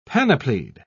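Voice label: "panoplied", British English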